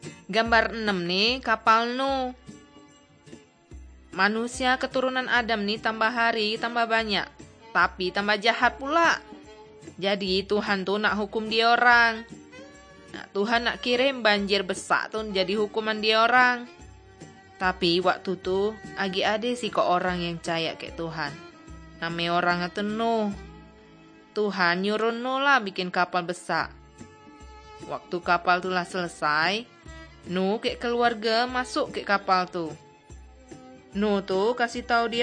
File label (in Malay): kabar baik good news malay bangka